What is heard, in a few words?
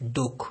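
"doke", Nigerian Pidgin, male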